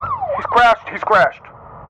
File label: emergency police radio male officer in car sirens hes crashed hes crashed siren off